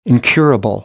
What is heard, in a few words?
"incurable", American; AmericanBritish